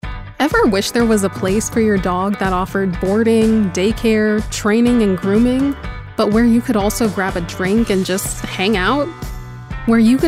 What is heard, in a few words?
Explainer